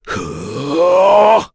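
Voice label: Vox Casting, korean; Bernheim; kingsraid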